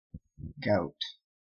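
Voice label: "gout", Canadian English; pronunciations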